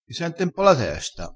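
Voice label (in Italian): "senti", dialettale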